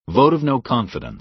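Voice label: "vote of no confidence", American English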